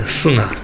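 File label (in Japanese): "suga", 南山形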